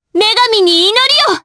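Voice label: Vox Skill, Japanese; Frey; kingsraid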